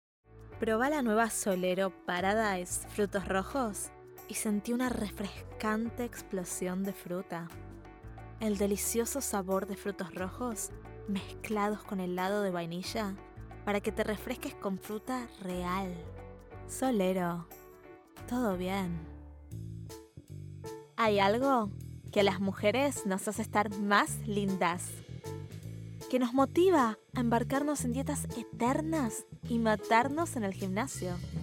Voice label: Spanish